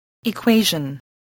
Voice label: "equation", voice, english